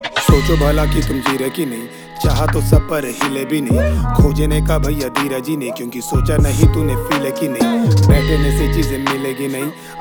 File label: Rap Ringtone